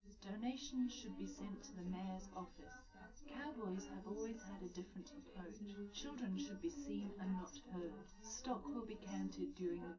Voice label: female live rec; SiSEC11; underdetermined

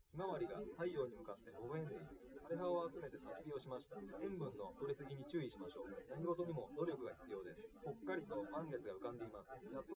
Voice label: male, live rec; SiSEC08; SiSEC underdetermined

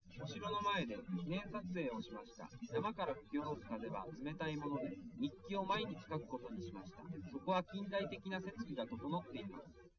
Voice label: male, liverec, src; SiSEC08; SiSEC underdetermined

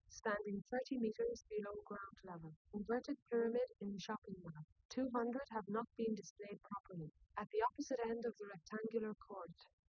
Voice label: female liverec 130ms 5cm sim; SiSEC08; SiSEC underdetermined

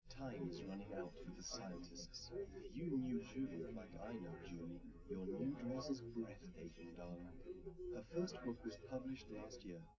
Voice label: male, live rec; SiSEC11; underdetermined

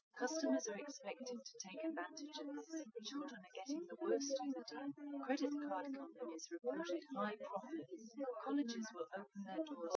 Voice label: female, live rec; SiSEC08; SiSEC underdetermined

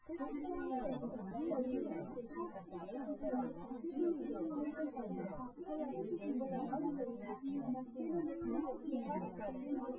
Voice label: female synthconv

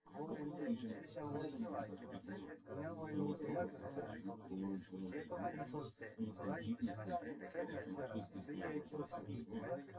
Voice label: male synth conv sim; SiSEC10; underdetermined

